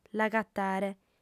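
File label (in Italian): "l'agatare", lingua sarda, fem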